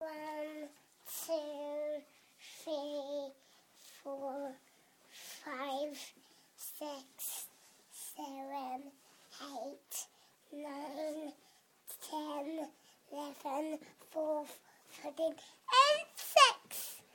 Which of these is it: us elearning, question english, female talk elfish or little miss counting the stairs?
little miss counting the stairs